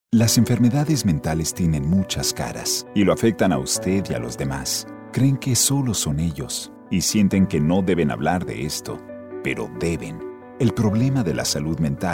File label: Latino Radio Ad